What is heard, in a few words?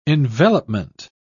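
"envelopment", English, British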